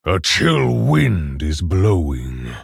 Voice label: male orc ogre start

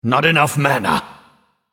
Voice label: male nightborne error need mana

